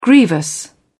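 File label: "grievous", British English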